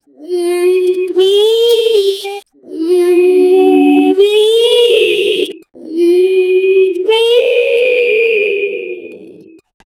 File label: distorted voices in parallel dimension